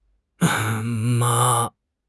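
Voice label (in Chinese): "嘛", Chinese; HSK6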